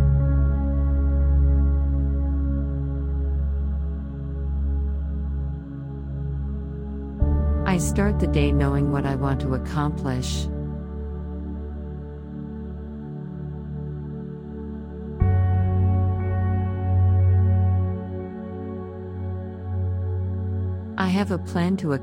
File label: I set goals affirmations audio meditation